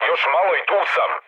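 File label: Serbian near waypoint mood med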